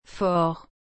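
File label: "fort", French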